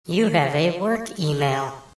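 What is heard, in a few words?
Work Email Notify Female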